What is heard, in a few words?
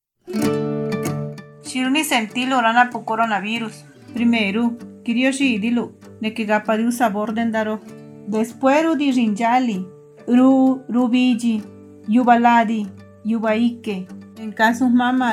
covid zapoteco istmo